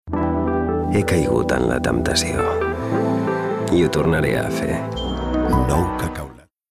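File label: male Catalan drink commercial; ideasonora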